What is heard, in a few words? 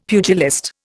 "pugilist", Indian; Dictionary